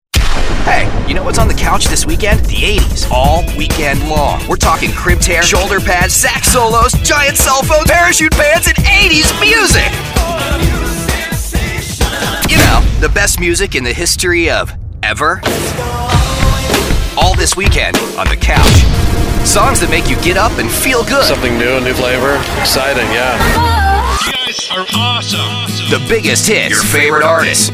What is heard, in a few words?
Imaging